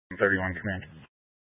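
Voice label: Incident Tac 2, Scanner audio recording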